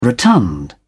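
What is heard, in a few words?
"rotund", British English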